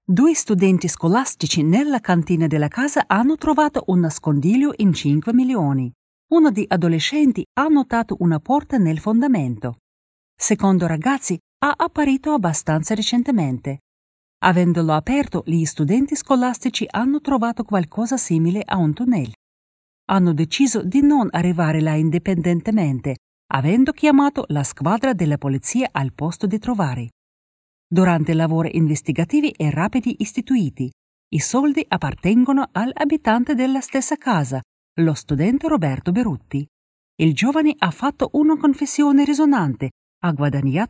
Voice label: italian super clean